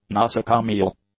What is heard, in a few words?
"nosocomial", english; adjectives